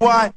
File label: "what", Voice; ooh ahh